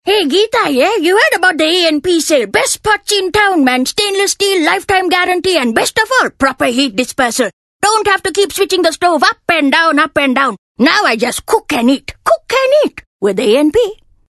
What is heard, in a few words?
Hardsell Durban Indian, voice artist